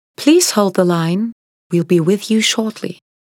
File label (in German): telefonpaket englisch